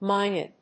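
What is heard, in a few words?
"myna", English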